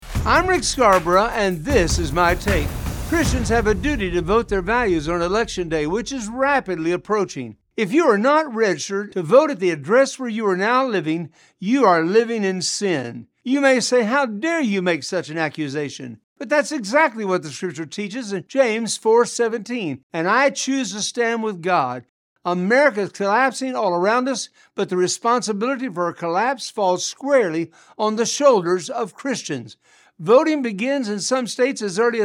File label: radio spot; Vote Your Values